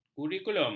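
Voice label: "kurikulum", Malay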